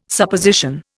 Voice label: "Supposition", Indian